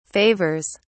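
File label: "favors", British English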